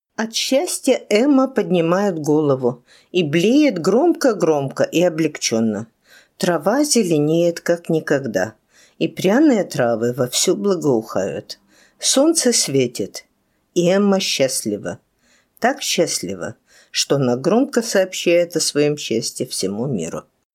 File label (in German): russisch